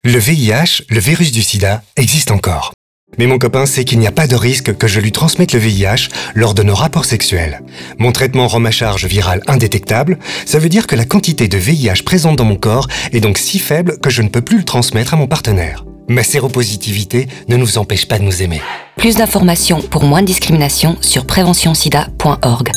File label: Spot radio